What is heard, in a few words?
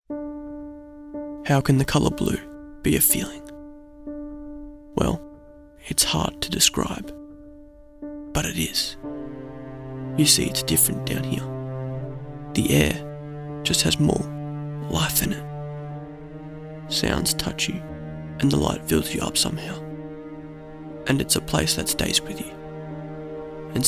Voice Over